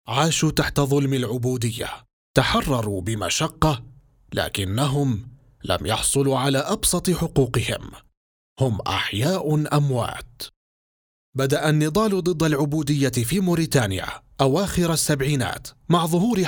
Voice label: arabic narration